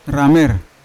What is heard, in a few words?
"ramer" burn, Yawa